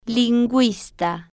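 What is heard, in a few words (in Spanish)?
"linguista", Spanish, female, slow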